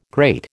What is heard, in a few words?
"great", American